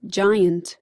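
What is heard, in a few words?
"giant", American English; pronunciations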